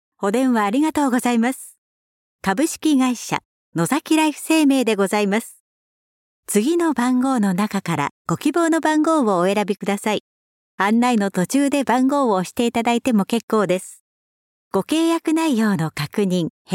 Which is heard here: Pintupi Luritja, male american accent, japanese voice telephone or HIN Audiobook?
japanese voice telephone